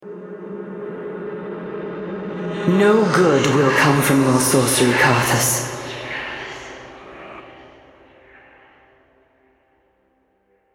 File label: Female; Karthus; en US